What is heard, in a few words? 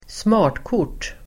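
"smartkort", Swedish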